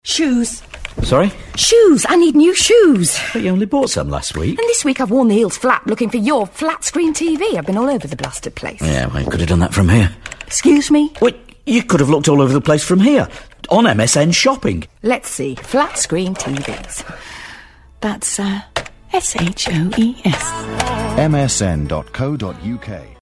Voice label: Commercial; Msn

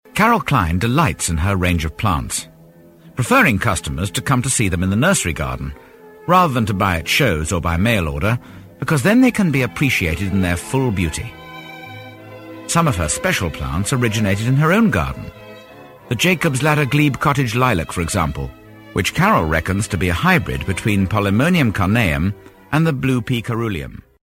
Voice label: Narration; Vocal Point

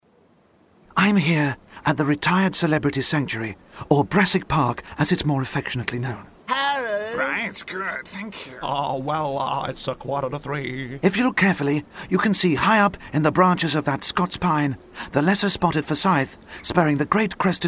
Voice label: Character; Brassic Park

